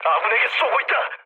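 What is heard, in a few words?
Korean player friendly fire mood low, speech; War Thunder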